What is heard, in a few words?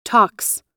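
"talks", US English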